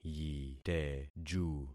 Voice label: "yiteju", concat syllables; Affixing experiment

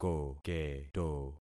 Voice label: "koketo", concat syllables; Affixing experiment

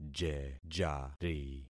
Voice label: "jejari", concat syllables; Affixing experiment

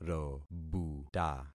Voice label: "robuta", concat syllables; Affixing experiment

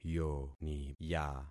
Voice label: "yoniya", concat syllables; Affixing experiment

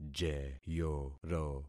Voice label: "jeyoro", concat syllables; Affixing experiment

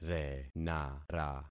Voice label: "renara", concat syllables; Affixing experiment

